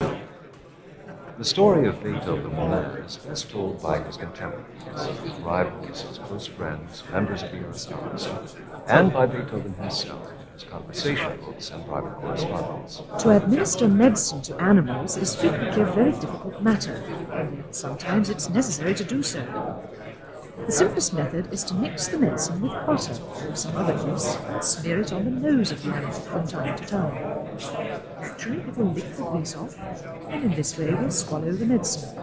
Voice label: babble